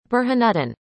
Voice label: "Burhanuddin", arabic; indonesian boy names